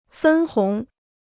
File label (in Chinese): "分红", Chinese